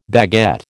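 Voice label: "baguette", American English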